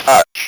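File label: "touch" English, Speak & Spell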